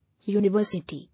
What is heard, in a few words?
"University", English